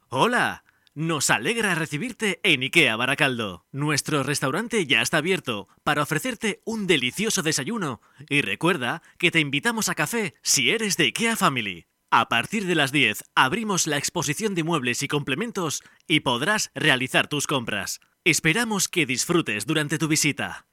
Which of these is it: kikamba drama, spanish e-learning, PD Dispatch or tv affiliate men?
spanish e-learning